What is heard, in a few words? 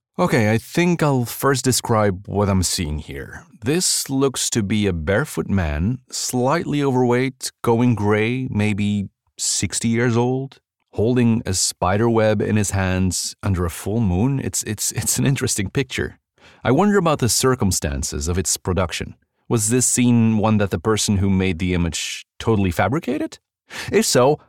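Flemish, male, English, characters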